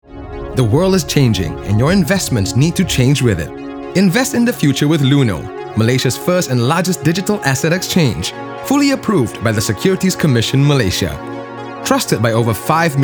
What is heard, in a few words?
ENG; LUNO